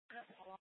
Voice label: Sheriffs Office Central Court Security, scanner; Maricopa County; Chandler-Mesa Simulcast

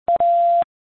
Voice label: PD Dispatch

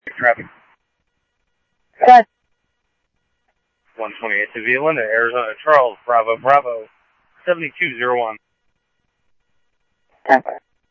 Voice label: Police, scanner audio

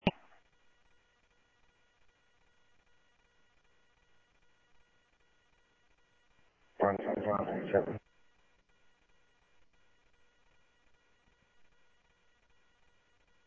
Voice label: Sheriff-EastCnty, scanner audio

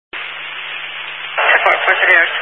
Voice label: Highway Patrol, Phoenix Metro West Area, scanner

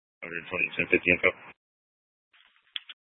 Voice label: scanner, Tempe PD; Rgnl Wireless Co-op (RWC)

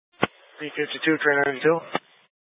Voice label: Light Rail Emergency, scanner

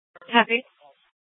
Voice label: Dispatch, Mesa PD; 4 Superstition Dispatch

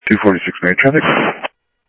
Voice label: Sheriff Central, scanner audio; ThompsonPeakScot; MCSO Central

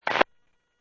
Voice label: Medevac, scanner; PHIAIREVACHelRad

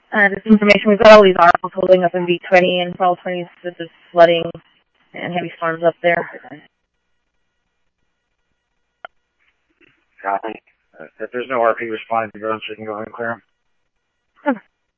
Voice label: Police, scanner; H-Scottsdale; ScottsdalePolice

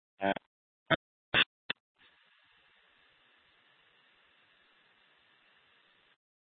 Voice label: Sheriff, scanner; Maricopa County (P25) - Thompson Peak; Shrf Southeast

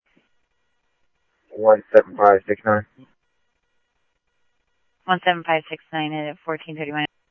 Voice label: Mesa Simulcast, MESA PD, scanner audio

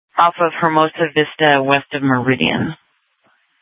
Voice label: Sheriff, scanner P25

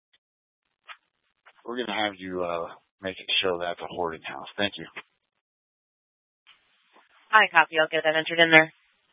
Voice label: Fire Departments Mesa Simulcast; TOPAZ

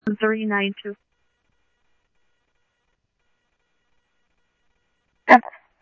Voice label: Scottsdale Police, scanner; ThompsonPeakHigh; SCTSDLE PD - PD2

